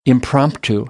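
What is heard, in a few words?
"impromptu", American English; AmericanBritish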